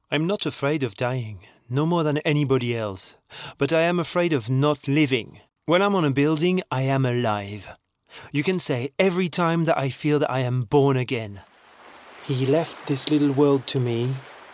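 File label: French Accented English Narration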